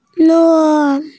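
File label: "ne1", Mandarin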